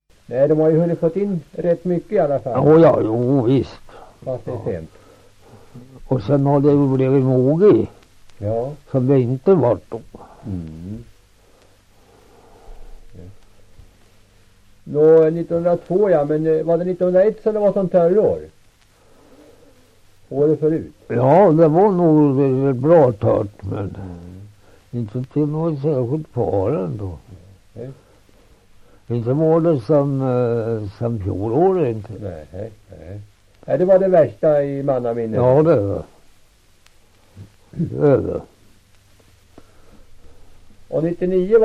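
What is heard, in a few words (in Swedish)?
Svärta Södermanland, dialekter, svenska sagor; Folkminnen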